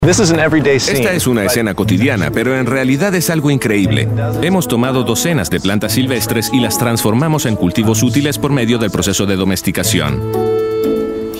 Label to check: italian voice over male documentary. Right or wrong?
Right